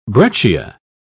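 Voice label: "breccia", British English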